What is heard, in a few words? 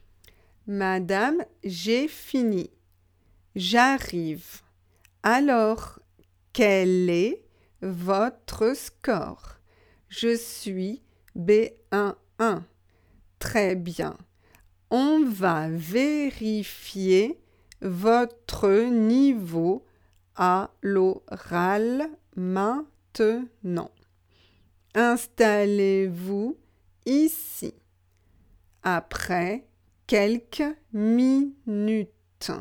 slow